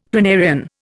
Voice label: "planarian", Indian; Dictionary